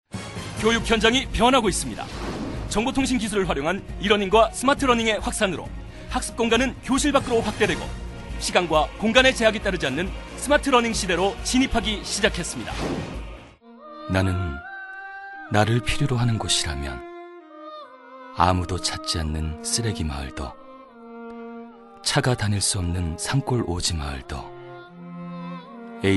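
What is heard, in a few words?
Korean, male, various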